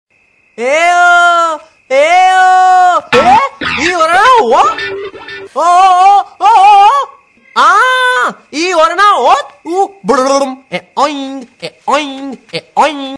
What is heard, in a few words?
Funny Voice, Hindi; Ao Ao; Tushar Kapoors Funny Voice; Golmaal; Comedy Hindi